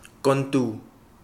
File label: mountain, Kapingamarangi